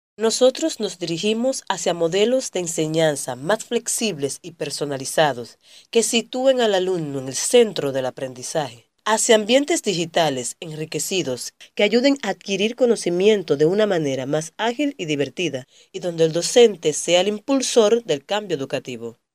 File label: locutora dominicana dominican voiceover; locutora de Santo Domingo